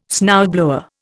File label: "snowblower", Indian